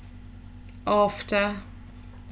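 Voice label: "after", British, female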